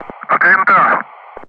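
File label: "clear", Russian; Ground Crew; DCS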